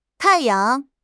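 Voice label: "tai4 yang5", Mandarin Chinese; HSK3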